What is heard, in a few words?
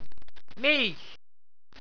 "milch", Walser